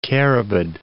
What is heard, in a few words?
"carabid", English